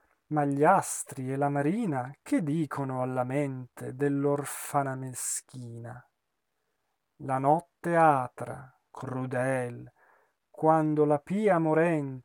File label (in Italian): espressivo; Simon Boccanegra; Come in quest’ora bruna